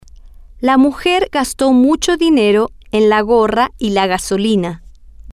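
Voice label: la mujer gasto mucho dinero en la gorra y la gasolina, Spanish, female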